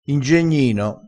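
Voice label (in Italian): "ingegnino", crespinese dialettale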